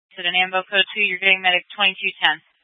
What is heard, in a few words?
Fire Departments Mesa Simulcast; TOPAZ